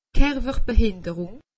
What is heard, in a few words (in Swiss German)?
"kerwerbehinderung", alsace; medecine